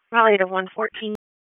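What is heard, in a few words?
Police Southwest District Disp, radio; Raleigh; wake; p25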